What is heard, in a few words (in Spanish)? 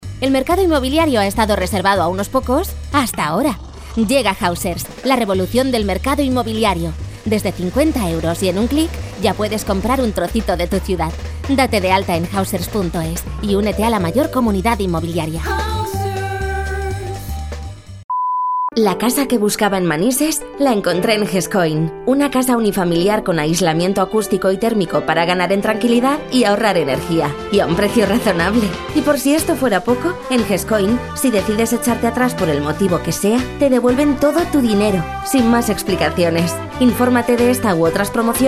comercial